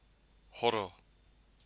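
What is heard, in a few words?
"horo", Maori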